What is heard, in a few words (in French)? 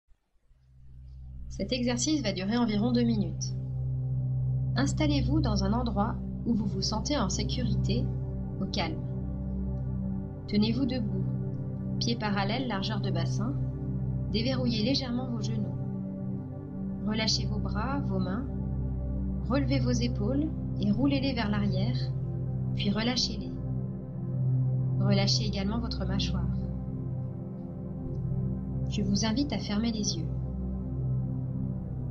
Méditation ancrage